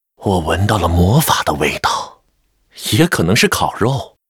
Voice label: Spellbreaker pissed, zh

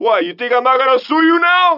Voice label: 拉丁裔海滩中年男性; 罪恶都市

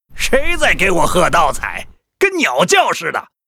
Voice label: Gryphon rider pissed

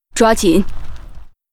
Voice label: Hippogryph with rider yes, zh

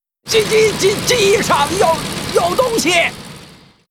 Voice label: Gyrocopter pissed

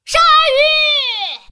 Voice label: ult, zh

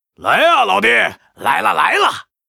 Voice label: Mortar team yes, zh

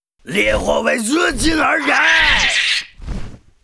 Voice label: Troll bat rider war cry

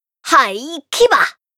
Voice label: Runner warcry

